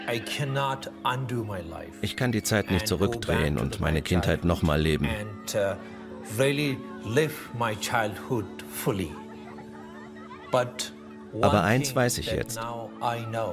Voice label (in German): Voice over; arte; Tashi und der Mönch